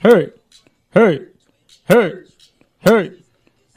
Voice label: "hey hey hey hey" LOOPED, voice; ooh ahh